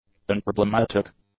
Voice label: "unproblematic", english; adjectives

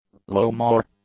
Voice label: "lowmoor", English; nouns

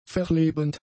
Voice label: "verlebend", German; adjectives

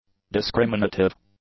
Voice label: "discriminative", English; adjectives

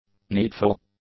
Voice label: "needful", english; adjectives

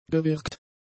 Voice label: "gewirkt", German; adjectives

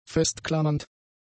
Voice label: "festklammernd", german; adjectives

